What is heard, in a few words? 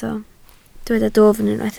"dwfn", Welsh